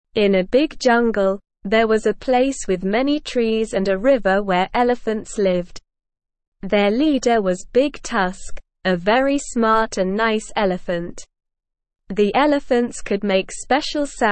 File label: ESL Short Stories for Kids Beginner SLOW Reading; Echo in the Elephant Valley